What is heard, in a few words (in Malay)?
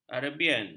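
"arabian", Malay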